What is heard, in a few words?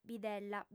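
"bidella", female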